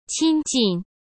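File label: "亲近", Mandarin Chinese; chinese-flashcards; hsk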